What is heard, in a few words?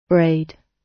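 "braid", British English; Time, history; Thời gian, lịch sử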